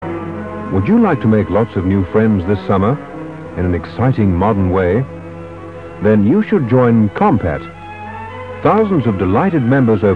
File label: Radio commercial